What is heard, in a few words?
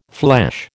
"flesh", American